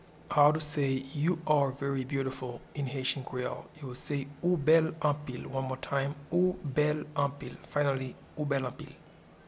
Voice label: You are very beautiful in Haitian Creole Ou bel anpil